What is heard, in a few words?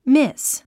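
"miss", English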